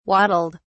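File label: "waddled", British English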